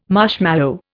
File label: "marshmallow", Indian